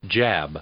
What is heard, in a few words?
"jab", English; verb